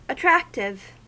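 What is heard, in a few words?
"attractive", American English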